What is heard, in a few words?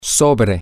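on top of, Spanish, male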